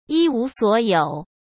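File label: "一无所有", Mandarin Chinese; chinese-flashcards; hsk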